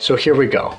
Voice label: "so here we go", male; Voice; introductions